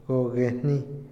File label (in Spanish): culpa, Otomí, hombre; INALI